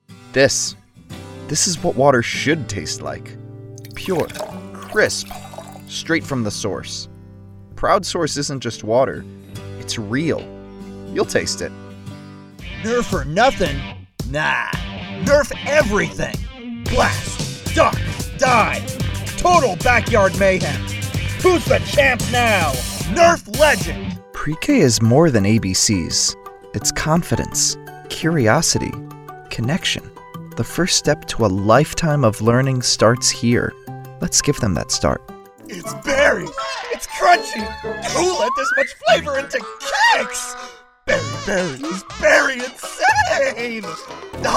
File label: Commercial